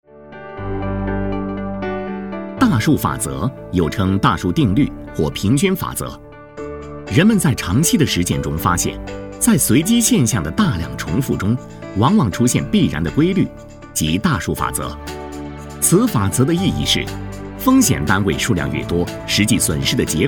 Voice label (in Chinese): 产品介绍保险理赔